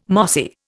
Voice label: "mossy", Indian